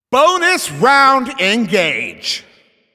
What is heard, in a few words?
Announcer Overtime Starts